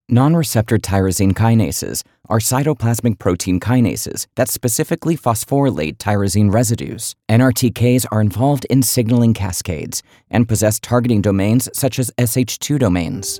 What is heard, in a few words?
VO Technical Medical Jargon Scholastic; Voice Over Read Styles